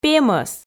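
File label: "пемӧс", Komi, female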